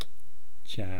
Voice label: "chaa", East Cree syllabics